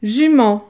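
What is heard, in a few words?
"jument", French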